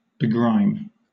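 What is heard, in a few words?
"begrime", British English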